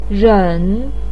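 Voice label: "ren", Mandarin pinyin, tone 3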